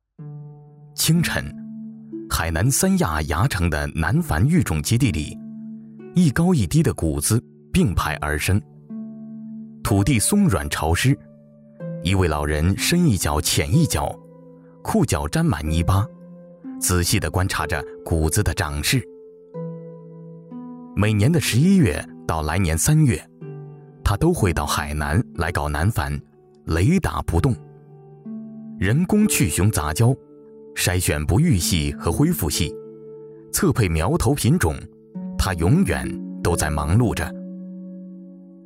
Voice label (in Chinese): 男 纪录片